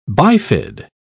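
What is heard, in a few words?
"bifid", English, British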